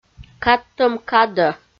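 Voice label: "кадтӧм кадӧ", Komi, female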